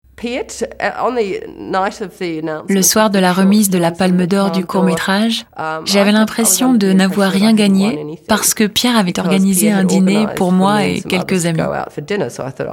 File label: voice over